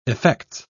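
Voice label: "effects", British English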